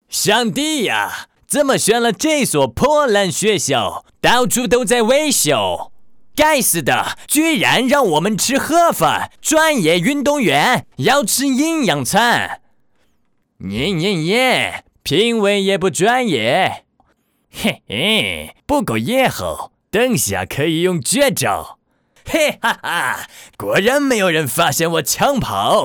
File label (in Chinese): 男 动画角色 外国人运动员